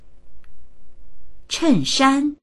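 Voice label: "chen4shan1", Chinese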